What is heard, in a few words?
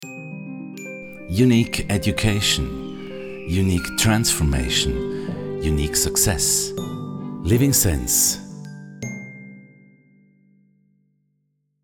core meditation